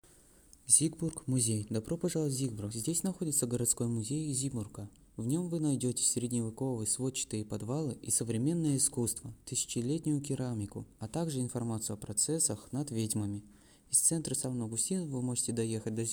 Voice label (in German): Russisch; Siegburg Museum